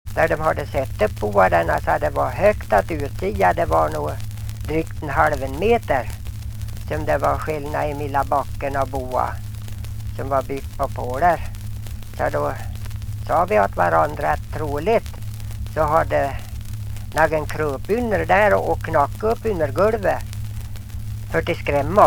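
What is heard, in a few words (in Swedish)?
Ljustorp Medelpad, dialekter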